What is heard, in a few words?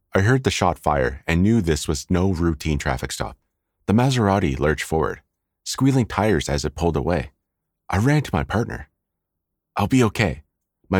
Audiobook Narration Sample; English (North American)